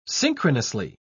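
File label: "synchronously", British English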